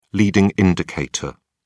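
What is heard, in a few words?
"leading indicator", English, British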